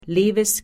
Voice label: "liewes", Pennsylvania Dutch; ih, ie, ieh; ie in believe

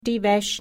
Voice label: "die Wesch", Pennsylvania Dutch; e in wet

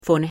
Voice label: "vun", Dutch pronounce consonants; f in fish

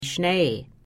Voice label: "Schnee", Pennsylvania Dutch

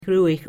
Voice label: "ruhich", Pennsylvania Dutch; r, rr

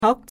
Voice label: "hockt", Pennsylvania Dutch; h- (beginning); h in house

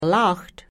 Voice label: "lacht", Pennsylvania Dutch

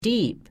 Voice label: "Dieb", Pennsylvania Dutch

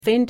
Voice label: "find", Pennsylvania Dutch; d (end); t in cat

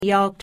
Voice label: "yaagt", Dutch pronounce vowels; Vowels - long; aa or aah; aw in saw

